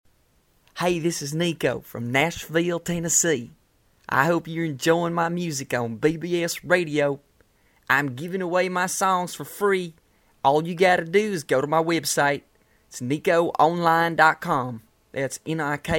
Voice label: bbs radio promo